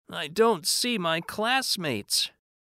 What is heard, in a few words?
Read